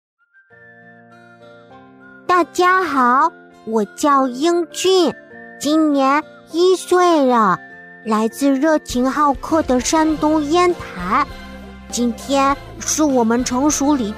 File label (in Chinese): 动画 童声 男童稚嫩可人; 樱桃君